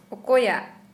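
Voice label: which, Santali, female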